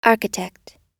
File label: "architect", us